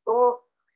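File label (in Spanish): Voice Acting es F, Spanish, female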